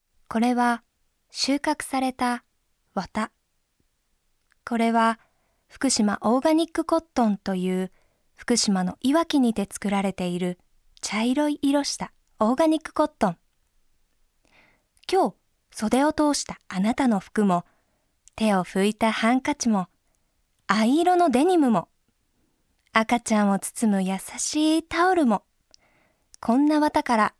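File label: Voice Sample Narration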